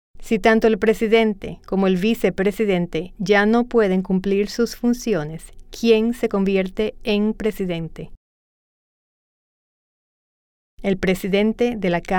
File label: Spanish